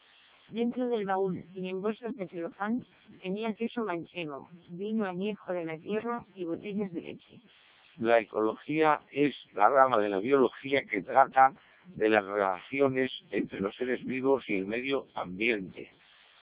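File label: Spanish speech, CODEC2 vocoder; CODEC2 700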